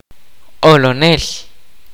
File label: "Funny", kaqchikel